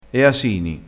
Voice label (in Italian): "asini", crespinese